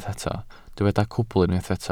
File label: "cwbl", welsh